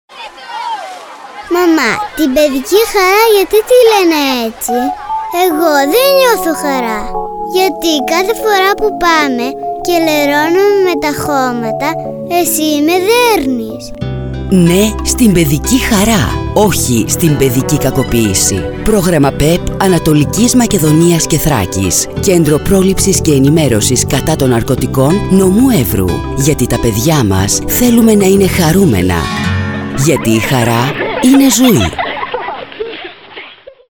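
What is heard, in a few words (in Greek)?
γυναίκα, Ραδιοφωνικά spot; Ραδιοφωνικό Spot Νο1; ΚΕΝΤΡΟΥ ΠΡΟΛΗΨΗΣ & ΕΝΗΜΕΡΩΣΗΣ κατά των Ναρκωτικών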